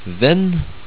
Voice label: "venn" Norwegian; word of day

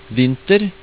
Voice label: "vinter", Norwegian; word of day

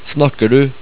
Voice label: "snakker", Norwegian

